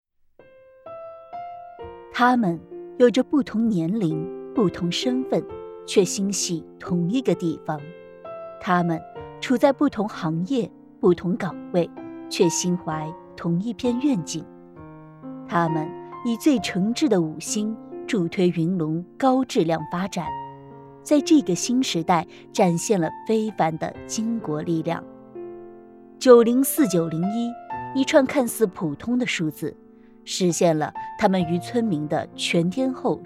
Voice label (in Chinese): 人物记录片